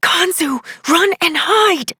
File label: Operator Voice, en; New War; Cetus Rescue